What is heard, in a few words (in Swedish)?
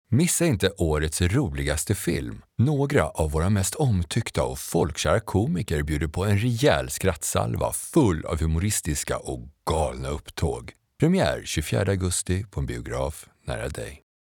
Reklam